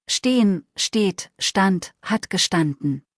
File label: "stehen", deutsch; verben kurzliste